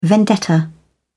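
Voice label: "vendetta", British English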